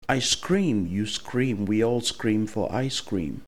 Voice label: i scream you scream, tongue twisters